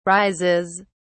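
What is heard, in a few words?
"rises", British English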